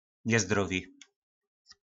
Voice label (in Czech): "Nězdrovi", nářečí Po našimu; Opar